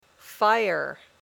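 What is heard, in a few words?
"fire", English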